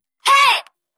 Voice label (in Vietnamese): tieng hay trong nhac dj remix giong nu